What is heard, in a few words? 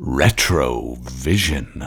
Retro Vision Voiceover